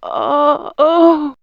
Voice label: Men Women Animal Magic, vox; vox mix; X-Static Goldmine